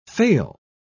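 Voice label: "fail", English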